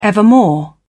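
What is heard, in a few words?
"evermore", British English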